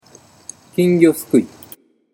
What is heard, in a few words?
"kingyosukui", Japanese